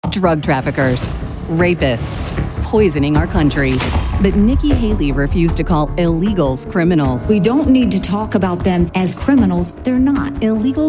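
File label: Political, female voice actor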